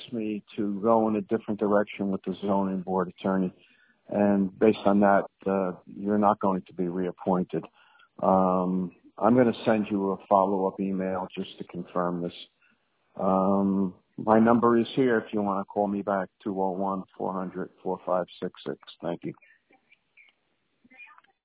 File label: voicemail